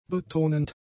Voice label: "betonend", German; adjectives

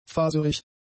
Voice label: "faserig", German; adjectives

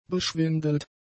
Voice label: "beschwindelt", german; adjectives

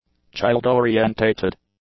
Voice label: "child-orientated", English; adjectives

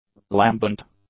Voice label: "lambent", english; adjectives

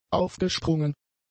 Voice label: "aufgesprungen", German; adjectives

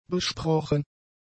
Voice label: "besprochen", german; adjectives

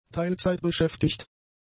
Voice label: "teilzeitbeschäftigt", German; adjectives

